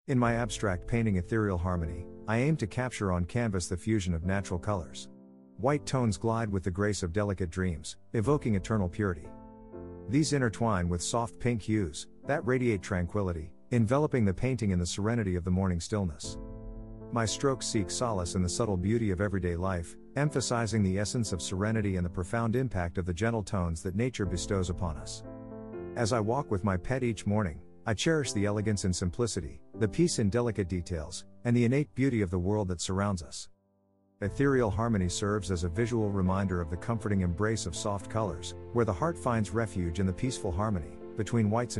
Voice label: audio description in english